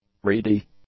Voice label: "reedy", English; adjectives

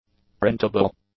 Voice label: "printable", english; adjectives

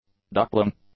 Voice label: "duckling", English

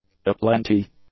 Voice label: "aplenty", English; adjectives

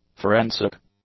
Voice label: "forensic", English; adjectives

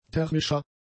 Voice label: "thermischa", German; adjectives

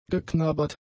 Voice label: "geknabbert", German; adjectives

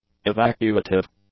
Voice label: "evacuative", English

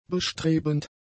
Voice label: "bestrebend", German; adjectives

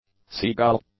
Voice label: "seagull", English; nouns

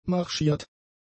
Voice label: "marschiert", German; adjectives

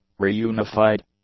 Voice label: "reunified", English; adjectives

